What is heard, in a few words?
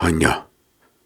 Soft Syllables and Imaginary Words, Viking Vocal